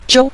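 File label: "jiu", Mandarin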